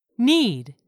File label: "need", English; 3000 words